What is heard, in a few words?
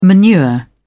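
"manure", English, British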